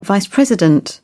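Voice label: "vice president", British English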